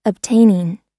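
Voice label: "obtaining", American English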